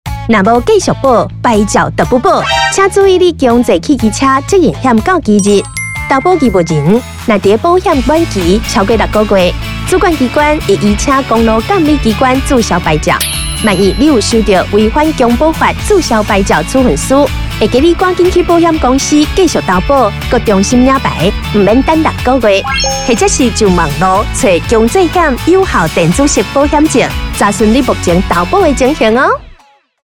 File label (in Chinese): 台語